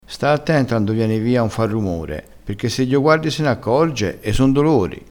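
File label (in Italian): "dioguardi", vocabolario dialettale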